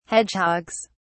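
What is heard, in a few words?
"hedgehogs", British English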